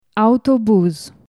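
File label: "bus", French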